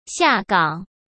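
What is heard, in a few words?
"下岗", Mandarin Chinese; chinese-flashcards; hsk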